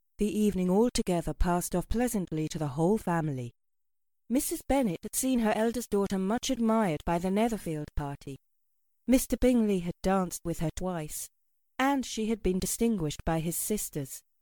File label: Audiobook; Pride Prejudice